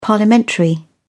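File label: "parliamentary", British English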